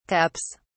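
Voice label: "cups", British English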